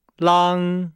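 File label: "lang", Chinese; Nihao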